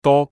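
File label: "do1", Cantonese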